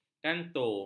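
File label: "kanto", Malay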